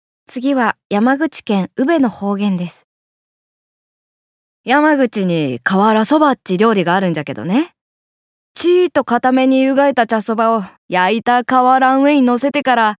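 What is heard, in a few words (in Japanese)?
方言, 宇部弁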